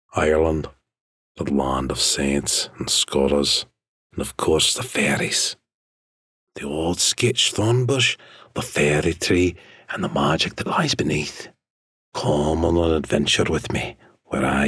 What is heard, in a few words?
Irish Tale Narration